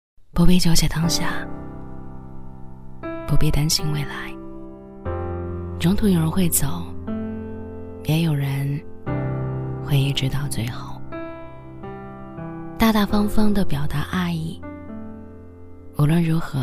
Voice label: 女-情感配音【伤感】; 年轻知性; 低沉走心; 网易云

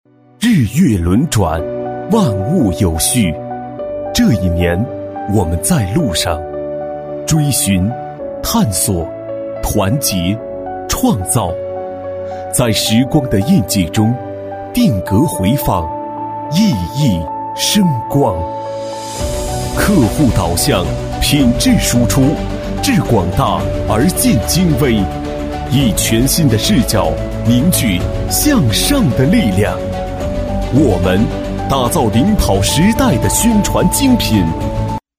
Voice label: 男 年会视频; 2023回顾视频; 年轻气质; 大气浑厚

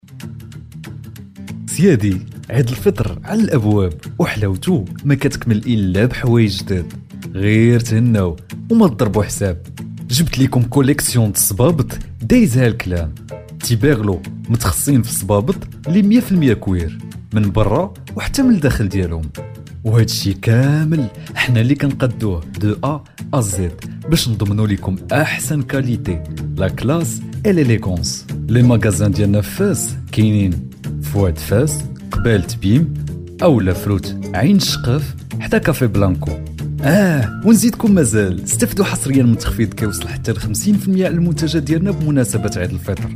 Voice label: 阿拉伯男声

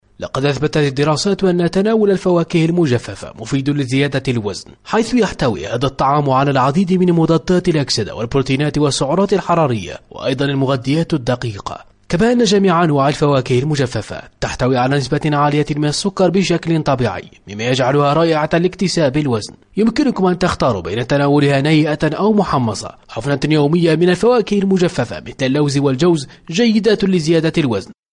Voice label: 阿拉伯男声

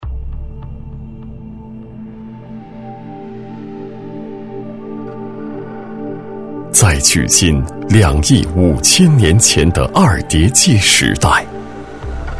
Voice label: 男 纪录片 历史感; 玉石; 厚重有力; 纪实记录

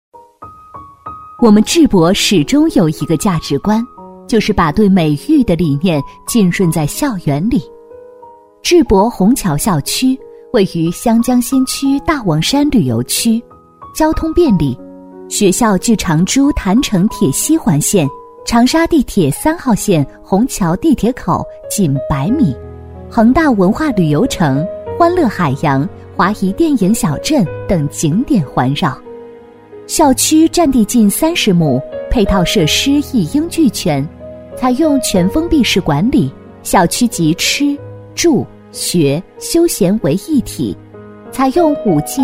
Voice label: 女, 学校介绍, 艺术学校, 大气温暖; 女21-大气知性 成熟知性; 智博

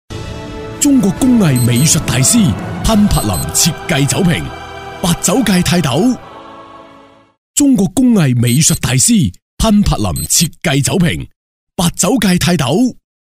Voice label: 男 粤语广告 大气; 大气厚重; 大气激昂; 潘柏林设计酒瓶

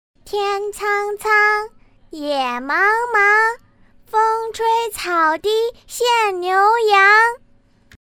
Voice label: 女, 童声, 女童, 诗歌朗诵; 年轻甜美; 可爱

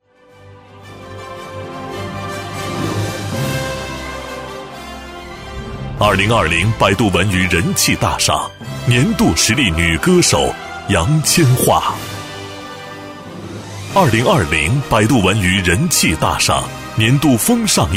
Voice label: 男 颁奖人物 颁奖词; 电视台声; 大气激昂; 百度娱乐年度最佳颁奖词

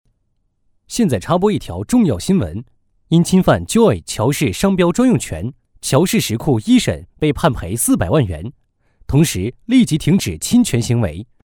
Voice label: 男 新闻; 严肃判赔; 新闻播报

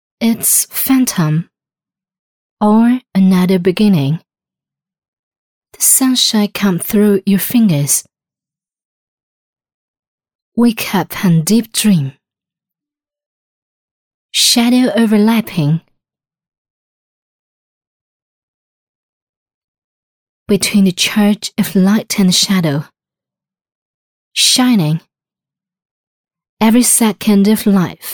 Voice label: 女 英文 语音IC; 中英双语; 成熟知性

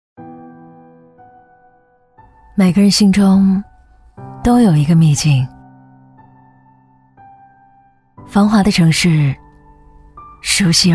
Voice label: 女 微电影 磁性高贵; 秘境; 女29-大气磁性 年轻舒缓

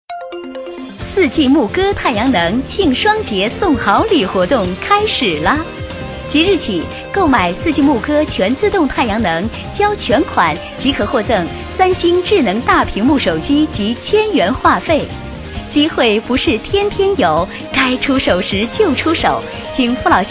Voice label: 女-活动广告【太阳能热水器】; 轻松活泼

